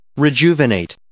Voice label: "rejuvenate", English